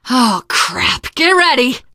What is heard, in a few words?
incoming, teengirl survivor voice